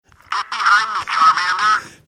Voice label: saying get behind me voice recorder toy; charmander

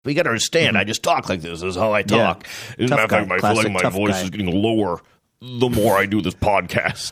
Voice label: speaking in deep weird voice

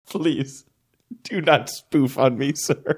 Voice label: quietly saying please dont spoof on me

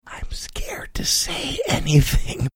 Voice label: whispering im scared to say anything

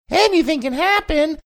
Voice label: saying anything can happen funny

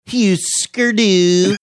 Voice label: Hüsker Dü exaggerated manner

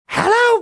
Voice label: mimicking moms funny eh llo

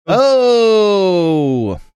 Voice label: drawn out oh

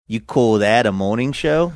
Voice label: you call that morning show faux australian

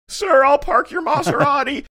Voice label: saying sir ill park your maserati cracked voice

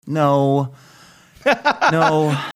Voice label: deflated no no

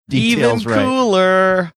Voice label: saying even cooler sing songy

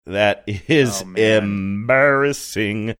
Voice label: saying that is embarrassing sing songy

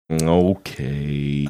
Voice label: drawn out okay

